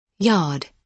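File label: "yard", British English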